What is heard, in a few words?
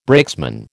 "brakesman", American